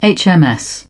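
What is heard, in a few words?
"hive", British English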